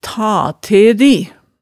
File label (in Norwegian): "ta te di", hemsedalsmaalet tale